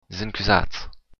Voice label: sorry, occitan